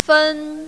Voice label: "fen1", mandarin, female